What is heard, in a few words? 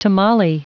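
"tamale", English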